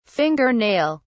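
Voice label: "finger nail", British English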